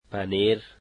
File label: cheese, dari